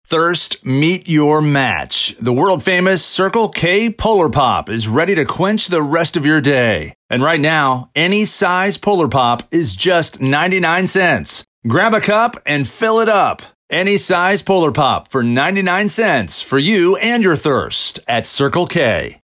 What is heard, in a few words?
Promo; Circle-K; Vibenomics